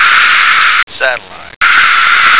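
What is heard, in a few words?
"satellite", American English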